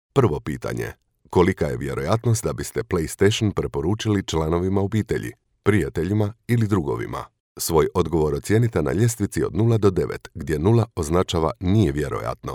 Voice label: croatian ivr